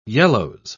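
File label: "yellows", British English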